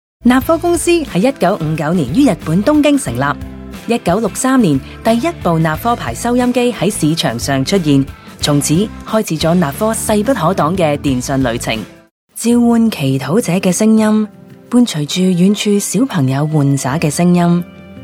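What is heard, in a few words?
Cantonese, narration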